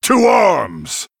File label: Male Human; Varian